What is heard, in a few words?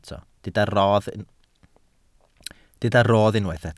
"rodd", Welsh